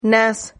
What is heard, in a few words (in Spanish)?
"nas", Silabas